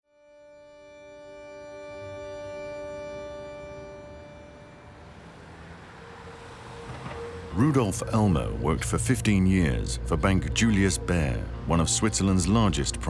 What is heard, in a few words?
documentary; ALIP